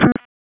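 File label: EMS DISP, radio; wake; p25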